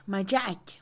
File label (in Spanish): Mixe, Mujer; palabra; Habla; INALI